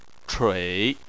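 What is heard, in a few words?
"chui" third tone, Chinese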